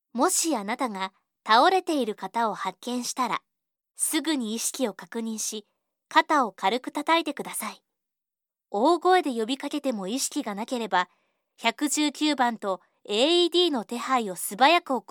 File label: Japanese Native